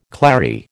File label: "clary", American